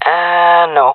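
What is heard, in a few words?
negative, jungle female; vo; Counter Strike Model Pack; CS:GO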